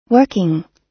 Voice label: "working", us